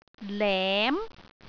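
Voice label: "lem2", Cantonese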